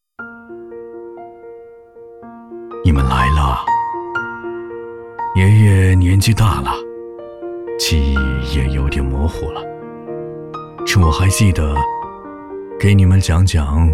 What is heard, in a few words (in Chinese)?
男,人物走心,百年老兵